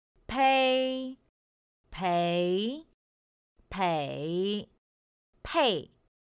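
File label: "pēi péi pěi pèi", Chinese; pinyin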